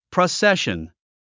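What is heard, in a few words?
"procession", English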